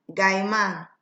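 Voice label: "guy man", Nigerian Pidgin, female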